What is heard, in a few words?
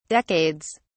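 "decades", English, British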